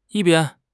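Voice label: "yi1 bian1", Mandarin Chinese; HSK3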